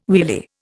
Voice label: "wheelie", Indian

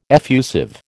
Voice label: "effusive", American

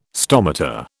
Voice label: "stomata", British

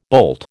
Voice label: "boult", American

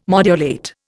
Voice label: "modulate", Indian

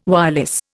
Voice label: "wireless", Indian

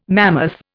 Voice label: "mammoth", Indian

